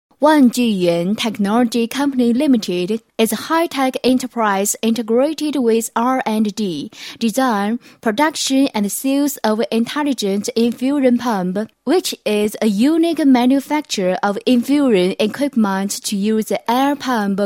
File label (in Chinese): 英女 英语; 万聚源